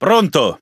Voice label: It Speech Pilots Fighter Ready; World Of Warships